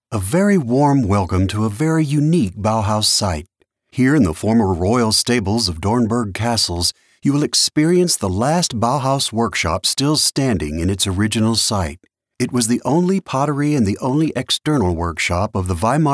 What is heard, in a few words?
english american audio guides